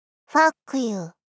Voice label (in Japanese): ファックユー！ 少女×中音域×テンション低め